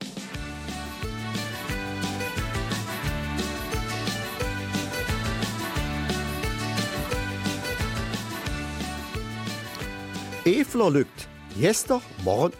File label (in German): Eifeler Mundart; Rund um Karneval